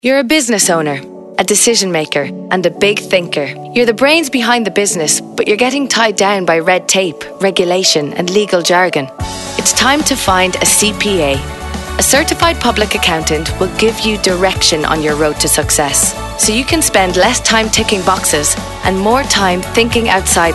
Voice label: Radio Advert; CPAIreland; Business Development